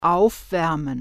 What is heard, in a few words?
"warmup", German